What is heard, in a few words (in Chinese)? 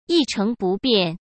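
"一成不变", Mandarin Chinese; chinese flashcards; hsk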